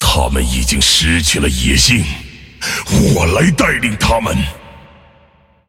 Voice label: zh; Volibear; move

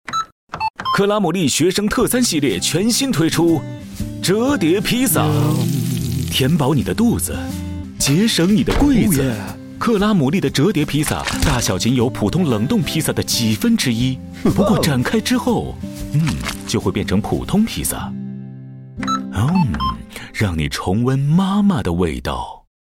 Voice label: Mandarin Ad Pizza; 双点校园; 电台广告

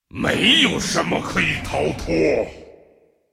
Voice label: attack, zh; Rengar; 傲之追猎者

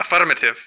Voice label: affirm, ru

